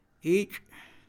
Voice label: "ratón", Mam; INALI